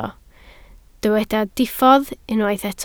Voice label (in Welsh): "diffodd", Welsh